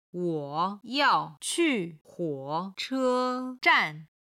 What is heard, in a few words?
"wo3 yao4 qu4 huo3 che1 zhan4", Chinese; 中国のタクシー